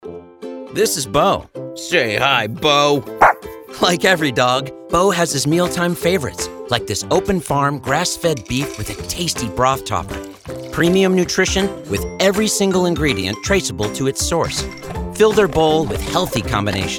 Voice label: Commercial Demo; Demo Reel